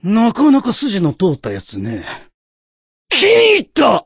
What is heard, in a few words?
voice, dubbing